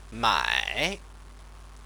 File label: "mai", Chinese